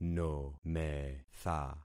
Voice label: "nometha", concat syllables; Affixing experiment